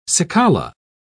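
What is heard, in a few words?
"cicala", British English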